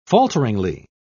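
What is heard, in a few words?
"falteringly", English, British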